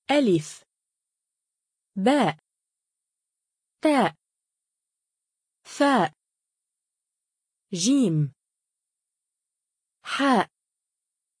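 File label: alphabets alifbaa, Arabic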